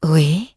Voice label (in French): "oui", bloodelf, male; PhoenixCraft-1.16.5; PhoenixNPCPack; minecraft